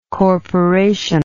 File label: "corporational", English